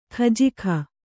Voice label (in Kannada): "ಖಜಾಕೆ", Kannada